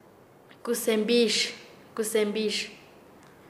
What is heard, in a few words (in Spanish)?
"ampolla", Nasa Yuwe; paez